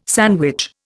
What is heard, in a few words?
"sandwich", Indian